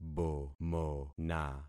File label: "bomona", concat syllables; Affixing experiment